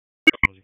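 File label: Police Southwest District Dispatch, p25; Raleigh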